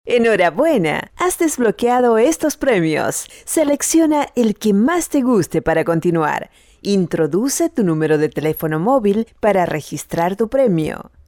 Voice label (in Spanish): locutora argentina argentinian voiceover; Spanish- Argentinian female voiceovers